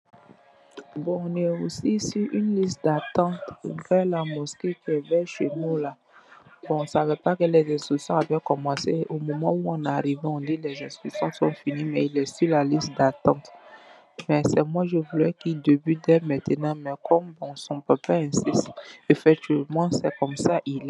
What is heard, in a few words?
voice message